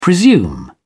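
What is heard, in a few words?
"presume", British English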